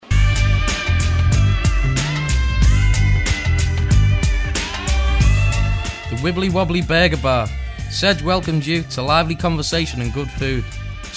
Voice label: ad, bed; wibbly wobbly burger bar; bailrigg fm

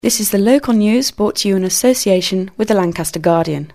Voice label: female, no bed; local news with lanc Guard; bailrigg fm; bfm jingles ads RSL 1994

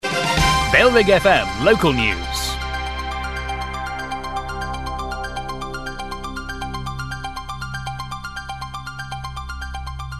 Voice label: local news (underlay), jingles; bfm; bailrigg fm; bfm jingles ads RSL 1994

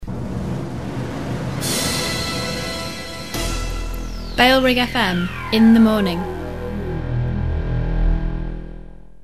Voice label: in the morning (female, bed), jingles; bfm; bailrigg fm; bfm jingles ads RSL 1994

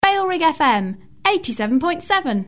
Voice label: female exclamation, no bed; bailrigg fm 87.7

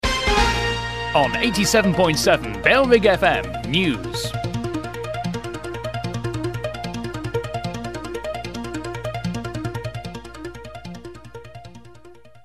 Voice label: on 87.7 bfm news, underlay; bailrigg fm; bfm jingles ads RSL 1994

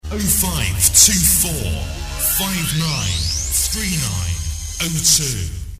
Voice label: male, reverse bed; bailrigg fm; bfm jingles ads RSL 1994

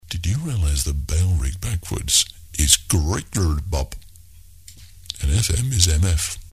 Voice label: male, no bed, jingles; bfm jingles ads RSL 1994

